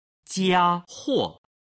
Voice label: "jiahuo", Chinese